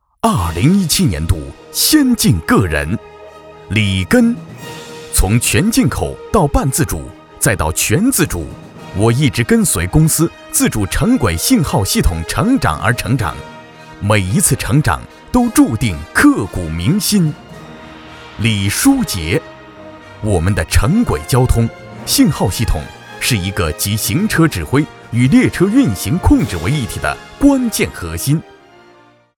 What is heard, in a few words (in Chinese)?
男55 颁奖; 2017先进个人